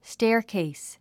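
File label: "staircase", English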